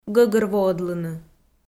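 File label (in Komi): "гӧгӧрвоӧдлыны", Komi, female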